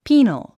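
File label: "penal", English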